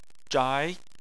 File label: "zhai2", Chinese